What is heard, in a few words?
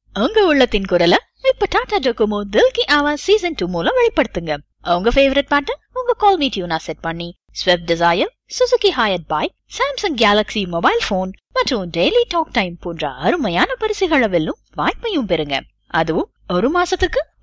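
Tamil female IVR; voice-over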